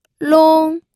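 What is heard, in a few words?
"long", first tone, pinyin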